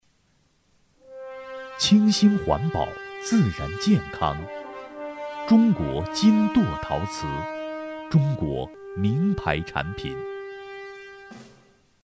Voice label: 广告 男; 陶瓷; 金舵陶瓷

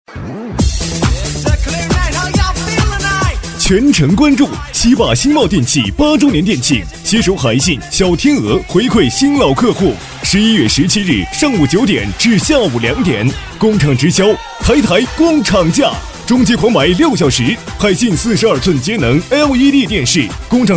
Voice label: 电器家电 男 激情; 促销

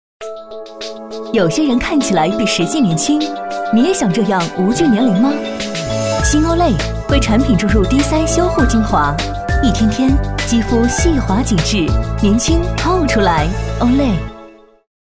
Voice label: 广告; 女; olay

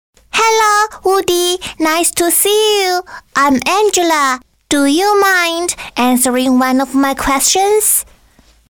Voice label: 【童声】英文小女孩

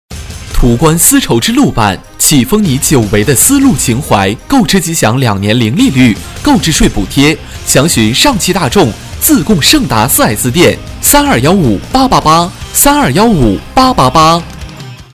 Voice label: 汽车 男 年轻时尚; 丝绸之路

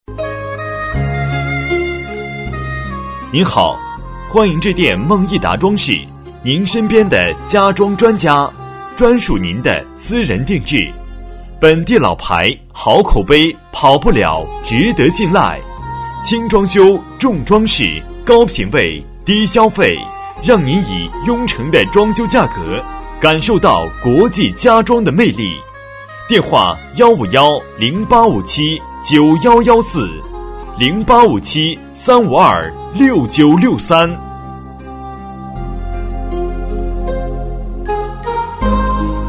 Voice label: 装饰彩铃 男 舒缓; 梦艺达

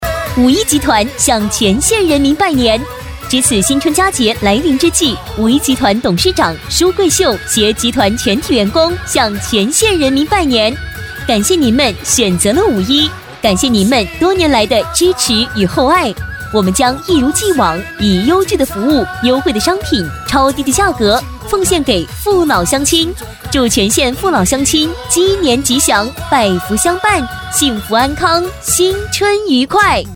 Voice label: 促销 女 激情; 五一集团新年拜年

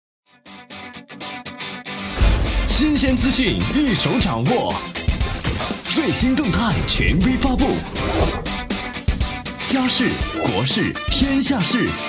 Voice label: 电台包装 新闻早上好片花 男 时尚动感

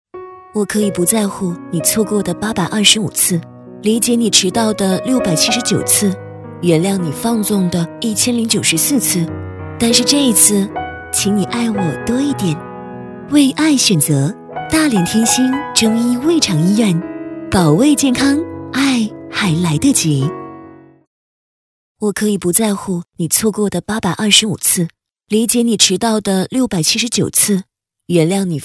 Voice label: 广告 女; 大连; 中医胃肠医院; 大连天兴中医胃肠医院

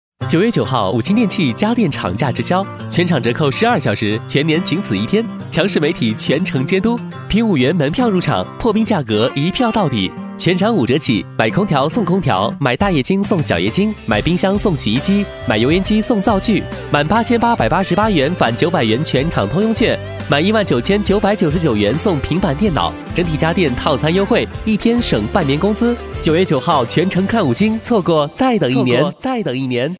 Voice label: 【广告】五星电器男

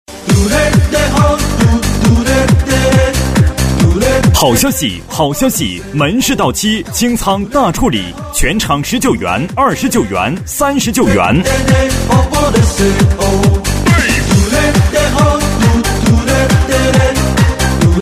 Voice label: 清仓 男 混录 激情; 促销

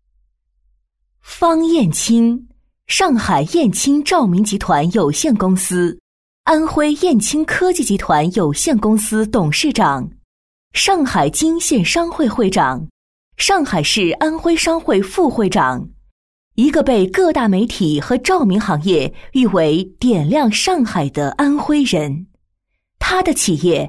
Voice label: 专题 人物介绍, 女

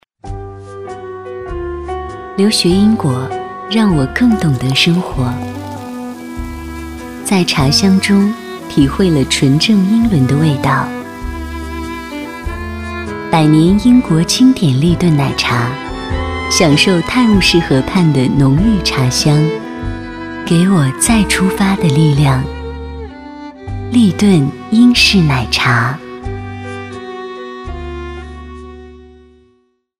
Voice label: 专题 慵懒自然, 女; 英式奶茶; 立顿英式奶茶

